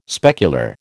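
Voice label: "specular", American English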